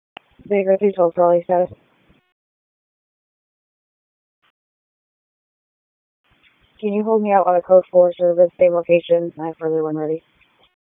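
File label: Police Dispatch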